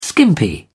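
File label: "skimpy", British English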